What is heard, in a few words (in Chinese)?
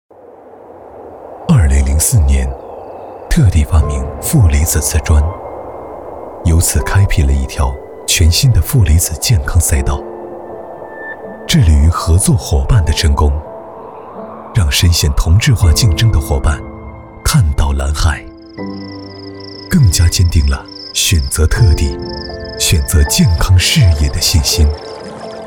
旁白 成熟